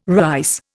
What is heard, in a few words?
"rice", Indian